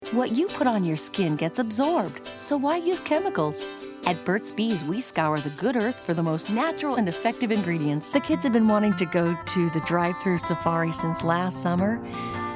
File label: comm, voiceovers female